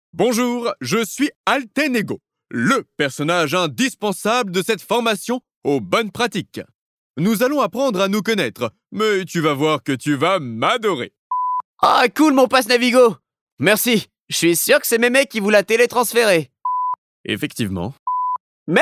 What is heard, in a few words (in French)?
Elearning Plusieurs personnages